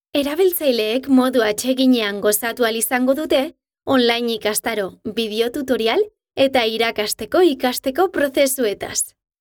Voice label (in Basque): E LEARNING EUSK